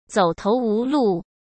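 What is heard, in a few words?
"走投无路", Mandarin Chinese; hsk; chinese-flashcards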